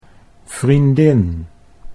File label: "vriendin", Dutch